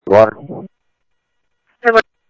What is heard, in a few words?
Scottsdale Police, scanner audio